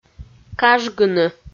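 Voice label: "кажгыны", Komi, female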